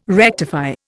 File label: "rectify", Indian